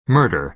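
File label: "murder", American English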